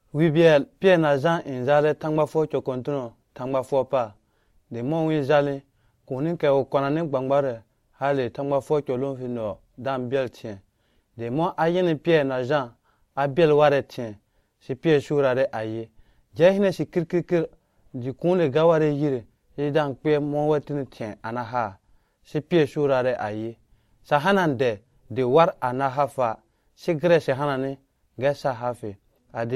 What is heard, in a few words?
Lobiri; Gbãgbaruuke Pier na Jã kpɛɛni; Peter and John Heal a Crippled Man